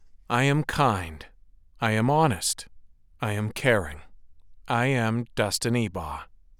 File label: men mini demo